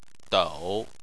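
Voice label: "dou" third tone, Chinese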